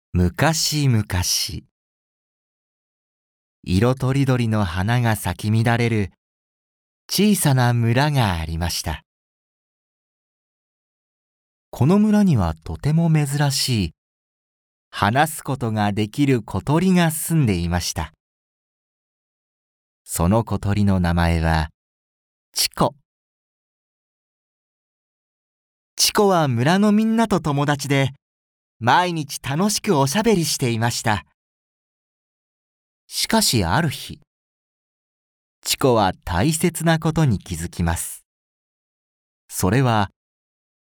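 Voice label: narration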